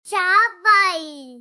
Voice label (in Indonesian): Suara Ceria Anak "Cabaii!"